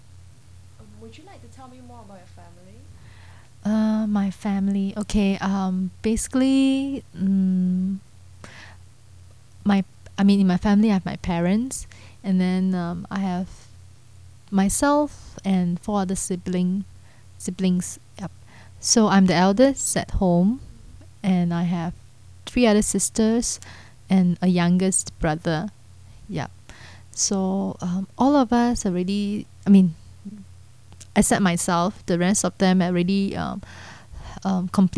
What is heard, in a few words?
Singapore English